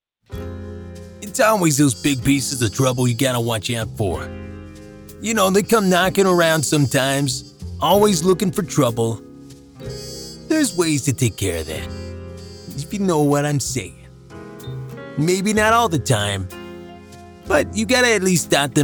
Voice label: Character